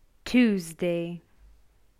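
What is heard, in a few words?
"Tuesday", English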